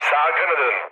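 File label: Turkish right wing, mood low, speech; War Thunder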